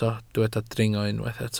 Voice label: "dringo", Welsh